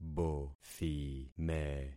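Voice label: "bothime", concatenated syllables; Affixing experiment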